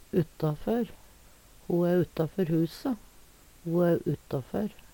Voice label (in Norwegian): uttafør, Numedalsmål; Ho æ uttafør huse. Ho æ uttafør.; ordet er både preposisjon og adjektiv